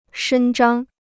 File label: "伸张", Chinese